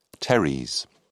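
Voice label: "teres", British English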